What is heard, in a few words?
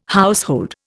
"household", Indian